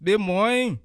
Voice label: hello, bassa; Ɓě mɔ́ínǹ; (pl)